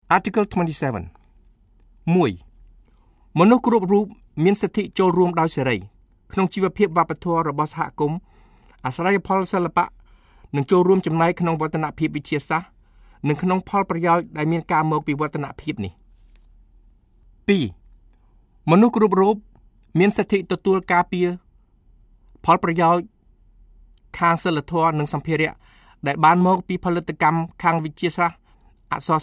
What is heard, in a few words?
Khmer